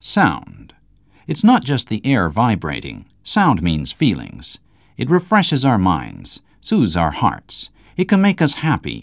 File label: English male